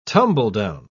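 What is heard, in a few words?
"tumble-down", British English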